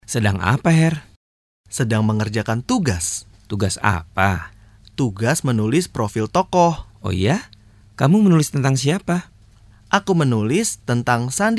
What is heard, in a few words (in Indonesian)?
PERCAKAPAN